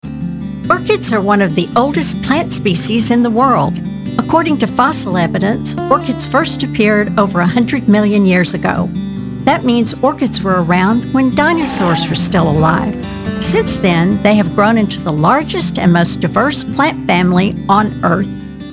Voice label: Narration; Orchids